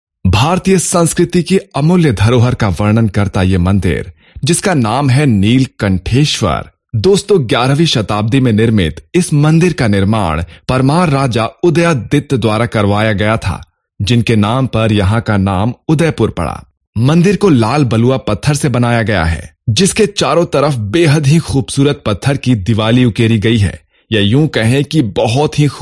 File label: narration